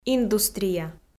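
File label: "индустрия", Komi-Zyrian, female; audio dict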